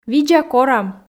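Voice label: "видза корам", Komi, female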